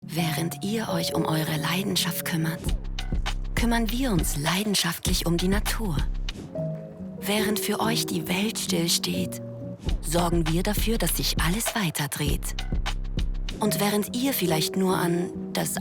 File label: Deutsch, Commercial (Werbung), Schweizerdeutsch; voice; Swisstainable (Schweiz Tourismus); Zweisamkeit